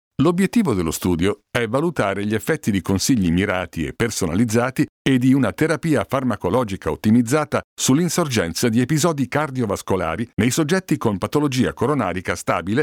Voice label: italian medical narration

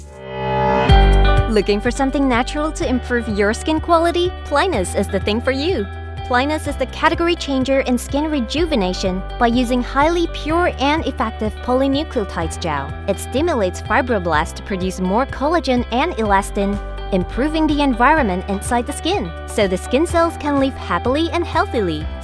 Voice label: english

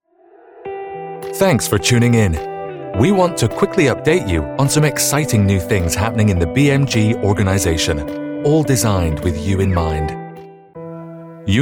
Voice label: english international corporate videos

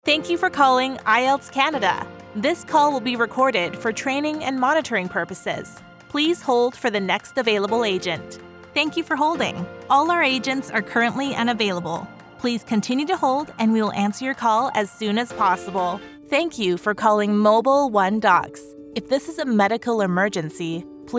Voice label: english american ivr

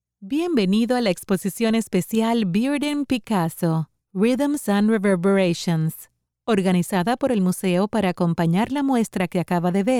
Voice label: spanish latin american audio guides, voice actors

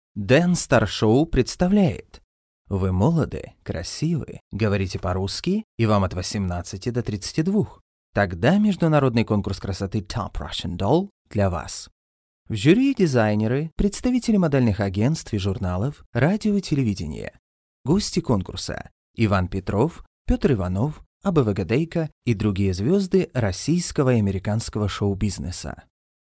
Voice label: russian promos; ruso (nativo)

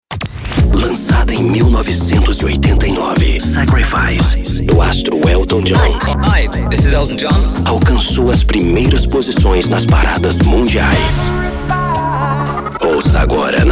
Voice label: portuguese brazilian radio imaging

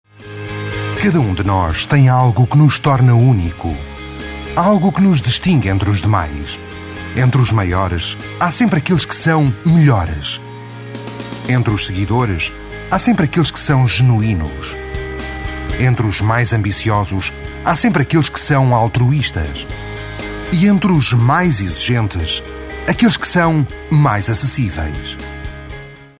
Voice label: portuguese corporate videos

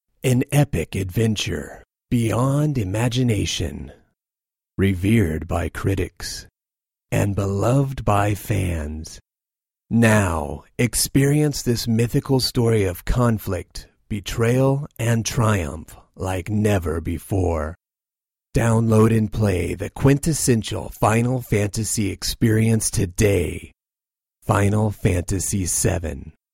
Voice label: English American movie trailers

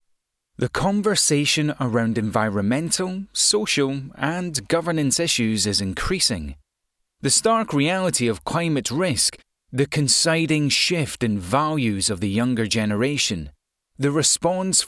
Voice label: English Scottish promos

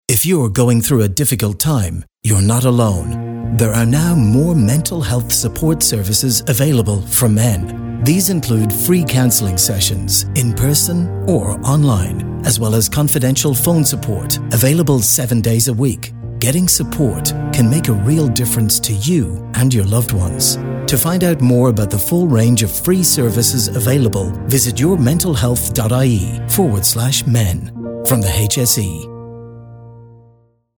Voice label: english irish political ads, Irish English; Annonces politiques / Automobile

